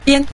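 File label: "bian", Mandarin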